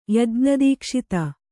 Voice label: "ಯಜ್ಞದೀಕ್ಷಿತ", Kannada